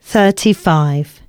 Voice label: announcements, female